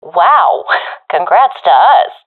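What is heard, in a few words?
round won, professional female; vo; Counter Strike Model Pack; CS:GO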